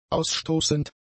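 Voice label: "ausstoßend", German; adjectives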